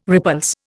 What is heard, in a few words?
"repulse", Indian